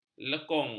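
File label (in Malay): "lekung", Malay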